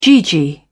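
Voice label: "gee gee", British English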